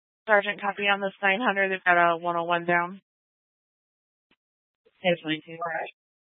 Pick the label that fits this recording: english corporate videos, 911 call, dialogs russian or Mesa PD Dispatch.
Mesa PD Dispatch